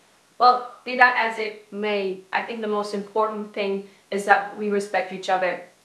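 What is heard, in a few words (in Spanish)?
Voice Acting es F, Spanish, female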